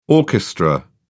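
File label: "orchestra", English, British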